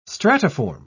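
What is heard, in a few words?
"stratiform", British English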